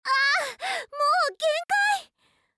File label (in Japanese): あー！ もう限界っ！（バッテリー切れアラーム）